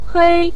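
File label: "hei", Mandarin Chinese, neutral tone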